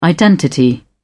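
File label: "identity", British English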